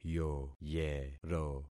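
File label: "yoyero", concatenated syllables; Affixing experiment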